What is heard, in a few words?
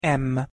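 "m", Hungarian pronunciation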